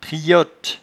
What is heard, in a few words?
"triotte", patois jura